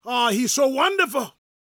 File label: preachin, gospel preacher